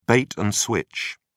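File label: "bait and switch", British English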